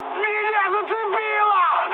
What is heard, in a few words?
damaged pilot, mood high, speech ru; War Thunder